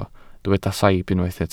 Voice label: "saib", Welsh